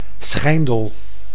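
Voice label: "Schijndel", Dutch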